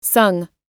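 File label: "sung", American English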